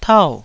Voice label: "tau4", Taiwanese